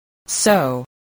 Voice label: "sew", spelling

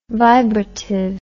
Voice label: "vibrative", English

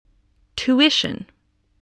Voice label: "tuition", voice

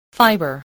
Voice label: "fiber", spelling

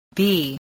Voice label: "bee", English

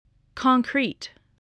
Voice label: "concrete", spelling

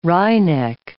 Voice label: "wryneck", English

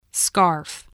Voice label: "scarf", english spelling

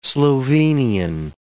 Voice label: "Slovenian", English

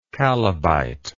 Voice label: "chalybite", English

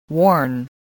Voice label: "warn", spelling

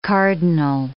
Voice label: "cardinal", English

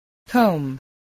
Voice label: "comb", voice

